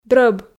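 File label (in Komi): "дрӧб", Komi, female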